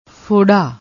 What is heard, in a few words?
"forra", Punjabi